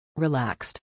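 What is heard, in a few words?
"relaxed", English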